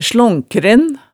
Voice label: "slonkren", hemsedalsmaalet

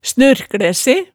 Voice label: "snurklæ se", hemsedalsmaalet

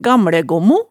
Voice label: "gamlægommo", hemsedalsmaalet

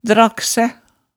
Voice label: "dragsæ", hemsedalsmaalet

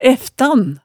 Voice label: "æftan", hemsedalsmaalet tale

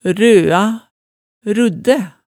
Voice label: "røa", hemsedalsmaalet

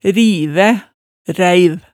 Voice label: "rivæ", Hemsedal

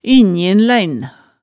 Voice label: "innjinlein", hemsedalsmaalet

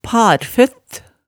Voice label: "parføtt", Hemsedal

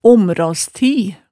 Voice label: "omraas-ti", hemsedalsmaalet, tale

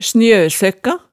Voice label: "snjøsøkka", hemsedalsmaalet

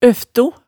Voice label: "øfto", hemsedalsmaalet tale

